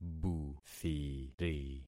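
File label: "buthiri", concatenated syllables; Affixing experiment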